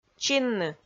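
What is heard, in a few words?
"чинны", Komi-Zyrian, female; kpv audio dict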